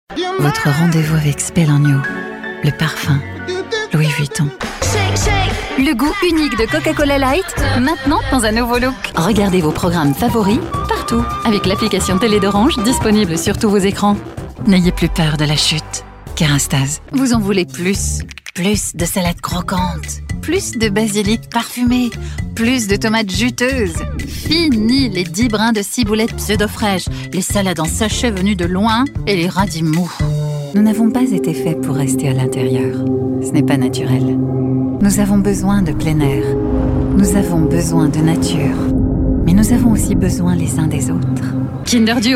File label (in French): radio tv pub ad, voix off femme; showreel